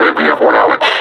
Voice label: announce attack, combine suppressor